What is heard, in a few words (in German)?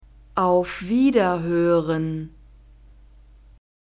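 auf Wiederhören, German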